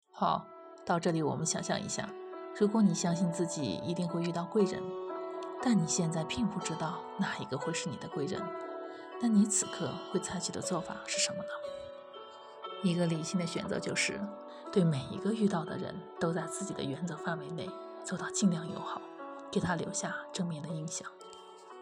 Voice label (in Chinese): 读书风格试音